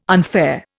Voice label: "unfair", Indian

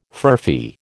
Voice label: "furphy", American

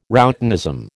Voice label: "routinism", American

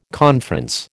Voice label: "conference", American

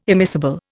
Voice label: "immiscible", Indian

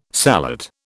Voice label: "sallet", British

